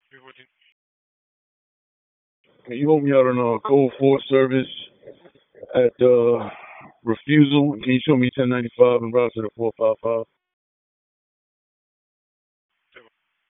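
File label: Police Northeast District Dispatch, p25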